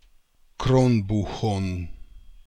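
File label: "Kronbookhon", pronunciations; Fourth Way; Beelzebub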